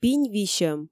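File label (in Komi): пинь висьӧм, Komi, female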